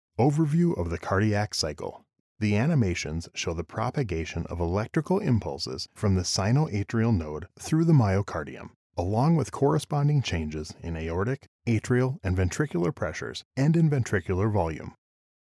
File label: eLearning Medical Male; Narration, eLearning, Medical Narration